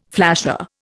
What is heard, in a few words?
"flasher", Indian